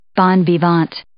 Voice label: "bon vivant", English